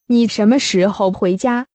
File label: Mandarin Conversation